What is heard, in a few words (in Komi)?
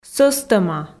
"сӧстӧма", Komi